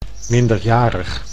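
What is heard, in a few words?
"minderjarig", Dutch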